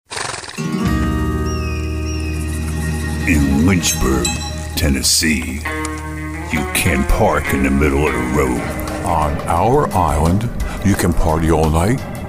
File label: commercials, voiceovers; sizzle